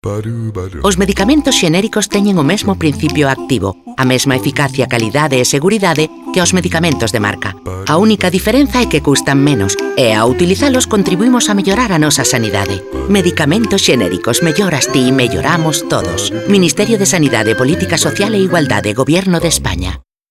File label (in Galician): gallego; 20 segundos; medicamentos Genericos EFG